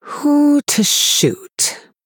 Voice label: Female High Elf Thinking